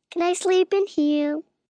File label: Can I Sleep In Here, Questions, 1 child, 5 yrs.; Talking Sound Effects